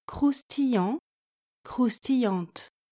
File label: "croustillant croustillante", French